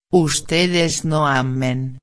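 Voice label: "no amen", Spanish; ustedes no amen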